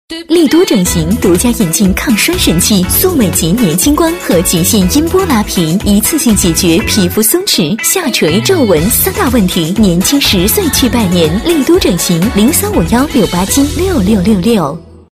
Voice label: 女 广告配音 气质知性, 质感磁性; 丽都整形

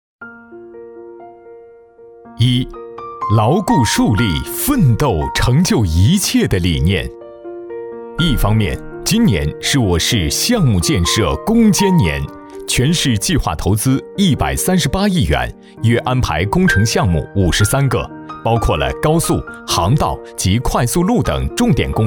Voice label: 男 汇报类; 宿迁市; 男D1-浑厚大气

